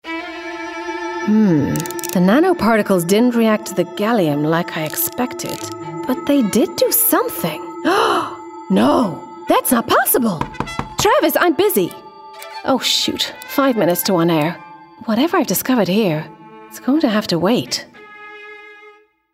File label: Animation Weathergirl Inventor US